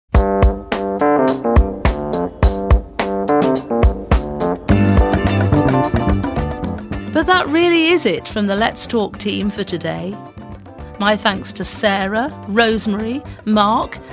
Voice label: Closing Announcement